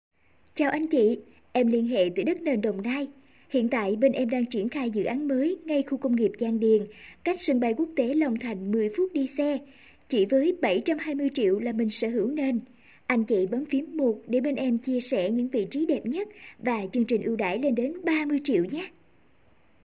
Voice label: Auto call demo